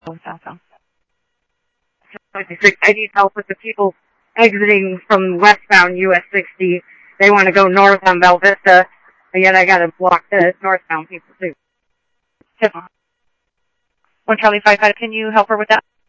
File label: Mesa Simulcast police; jumper